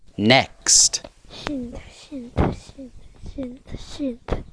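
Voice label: "cent", spoken word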